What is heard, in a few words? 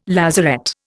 "lazaret", Indian